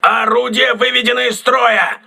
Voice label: voice message weapon destroyed, crew ships russian